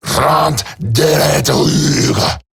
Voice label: Attack Eximus Grineer Male; Battle Barks